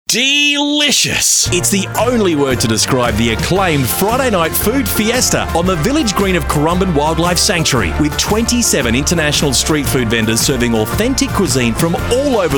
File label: radio commercial; markets down under sanctuary markets